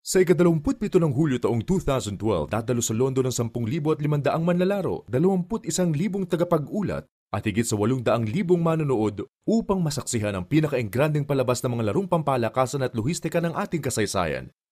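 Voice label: Tagalog, narration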